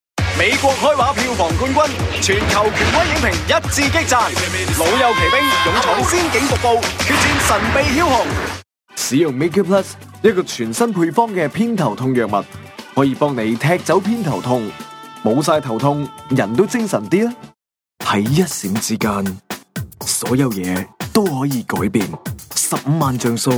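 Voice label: Cantonese, TV commercial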